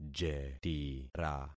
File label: "jetira", concat syllables; Affixing experiment